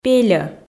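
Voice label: "пельӧ", Komi, female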